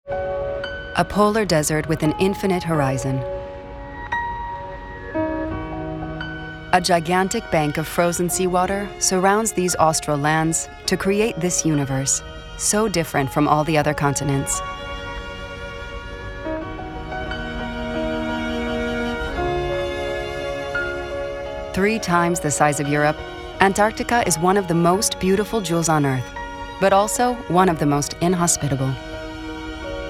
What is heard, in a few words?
Narration; Antarctica